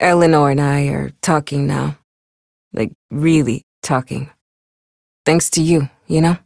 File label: Ambient Barks, en; Warframe; Mall Romance; Lettie